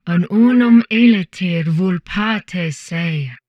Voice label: humanoid portrait female; Stellaris; humanoids